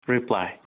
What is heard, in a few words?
hello uzbek; Labbay!; telephone